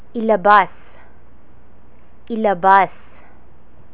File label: "ilabas", Tagalog